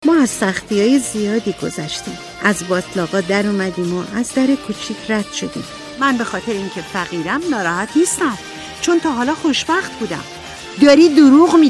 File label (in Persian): نمونه صدای خانم; آواگر